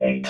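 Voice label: "eight", robot voice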